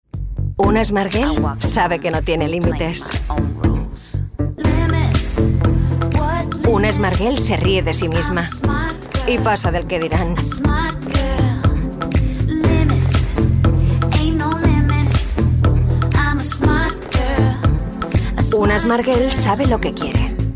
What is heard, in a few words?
female spanish car comercial; ideasonora